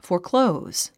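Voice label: "foreclose", English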